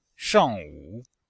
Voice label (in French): "shang4wu3", chinois; Cours-de-Chinois